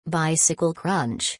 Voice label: "Bicycle Crunch", English